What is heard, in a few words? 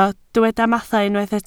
"mathau", Welsh